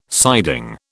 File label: "siding", British